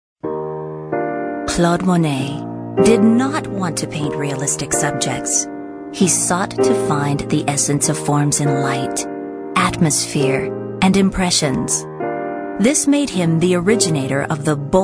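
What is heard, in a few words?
narration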